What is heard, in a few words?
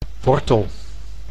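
"wortel", Dutch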